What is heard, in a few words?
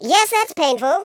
"ouch", Patronising speech